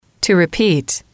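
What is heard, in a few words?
"to Repeat", female, english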